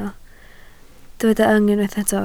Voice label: "yng", Welsh

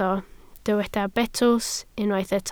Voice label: "betws", Welsh

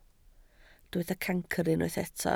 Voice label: "cancr", Welsh

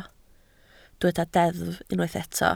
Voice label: "deddf", Welsh

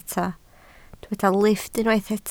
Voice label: "lifft", Welsh

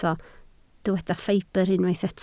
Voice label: "ffibr", Welsh

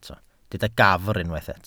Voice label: "gafr", Welsh

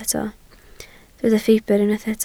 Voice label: "ffibr", Welsh

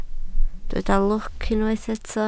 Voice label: "lwc", Welsh

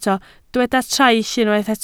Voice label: "traill", Welsh

